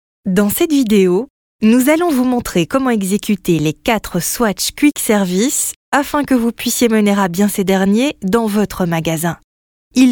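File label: FR F elearning, French, female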